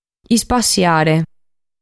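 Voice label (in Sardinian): "Ispassiare", Sardinian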